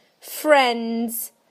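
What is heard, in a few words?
"friends", British English; PictureDictionary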